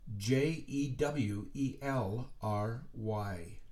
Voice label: Jewelry, letters being spelled out

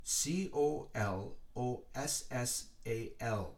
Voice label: Colossal, letters being spelled out

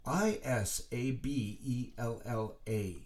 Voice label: Isabella, letters being spelled out

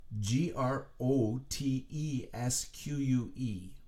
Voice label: Grotesque, letters being spelled out

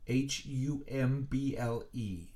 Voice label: Humble

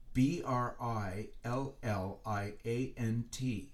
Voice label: Brilliant, letters being spelled out

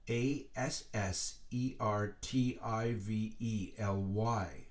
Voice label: Assertively, letters being spelled out

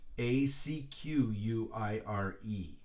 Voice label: Acquire, letters being spelled out

